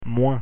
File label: "moins", French pronunciation